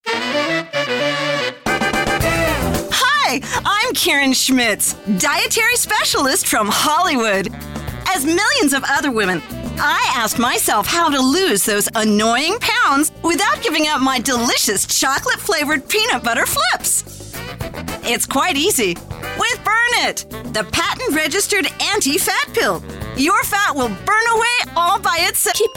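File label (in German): American English, Werbung Variabel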